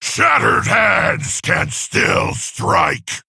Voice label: Male Orc